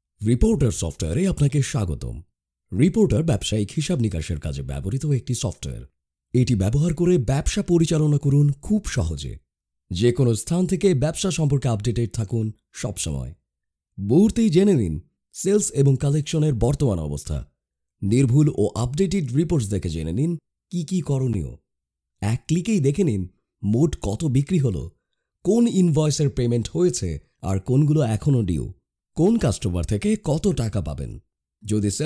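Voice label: Male, Bengali, India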